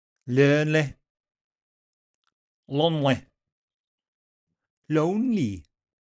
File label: Welsh